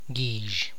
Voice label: "dry corn plants used to feed cattle", tlacochahuaya